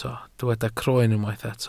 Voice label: "croen", welsh